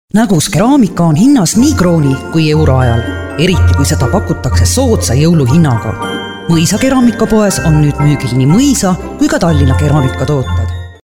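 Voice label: Estonian, female, commercial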